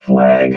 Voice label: "flag", robot vox